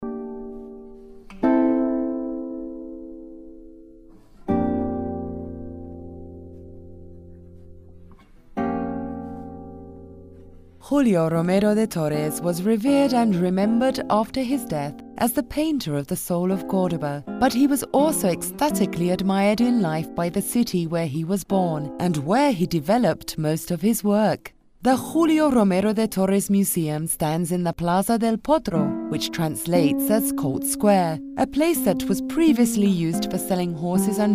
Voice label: AUDIOGUIA

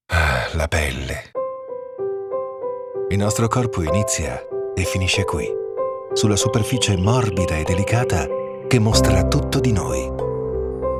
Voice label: Neutro

